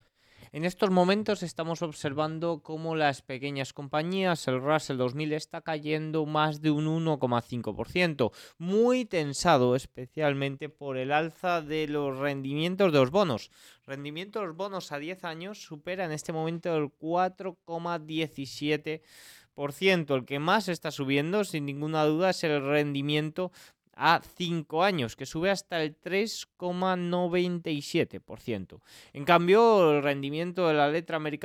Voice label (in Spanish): radio; noticias bolsa